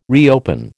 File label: "reopen", American